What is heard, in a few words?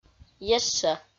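"ешшӧ", Komi, female; audio dict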